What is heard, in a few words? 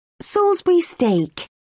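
"salisbury steak", British English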